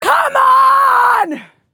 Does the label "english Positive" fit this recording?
Yes